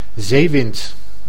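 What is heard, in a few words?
"zeewind", Dutch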